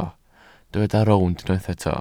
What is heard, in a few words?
"rownd", Welsh